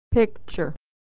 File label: "picture", pronunciation